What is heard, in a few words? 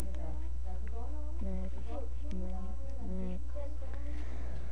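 "neck", spoken word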